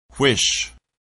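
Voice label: "whish", English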